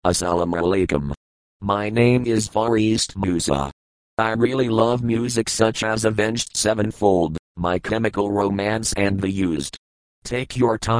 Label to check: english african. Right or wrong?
Wrong